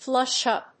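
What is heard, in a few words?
"flush up", English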